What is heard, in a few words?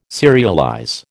"serialize", American